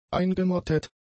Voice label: "eingemottet", German; adjectives